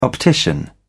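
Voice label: "optician", British English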